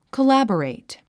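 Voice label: "collaborate", English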